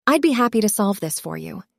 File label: synthesized audio